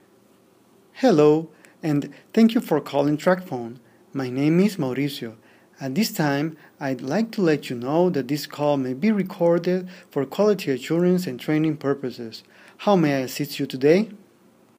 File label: Call Center Audio Sample